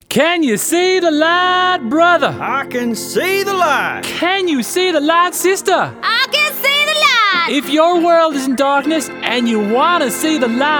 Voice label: characterisation, voiceovers